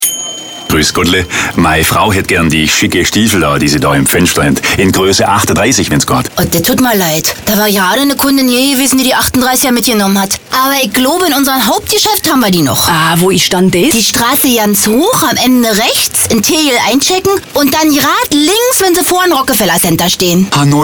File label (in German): Tourismus Radiowerbung